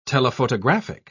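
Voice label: "telephotographic", British English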